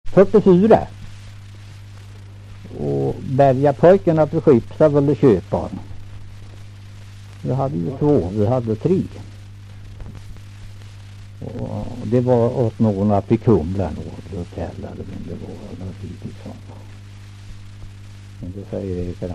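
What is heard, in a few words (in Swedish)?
Kräklinge Närke, dialekter; Folkminnen; Svenska sagor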